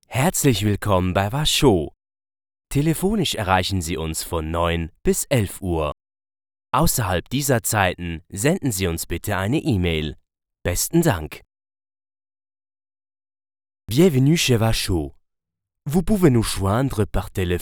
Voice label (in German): Telefonansage